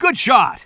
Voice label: commentator